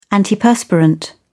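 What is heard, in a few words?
"antiperspirant", British English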